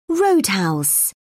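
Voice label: "roadhouse", British English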